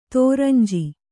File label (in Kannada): "ದುರಂಜಿ", Kannada